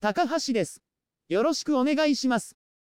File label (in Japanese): genki; CeVIO Creative Studio